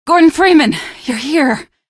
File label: squad greet, female; Source Engine; video game; npc